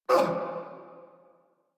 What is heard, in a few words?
Pain; Warframe; Eidolon Skiff Grineer